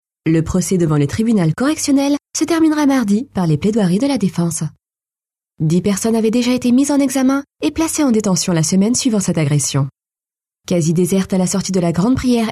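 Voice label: French, female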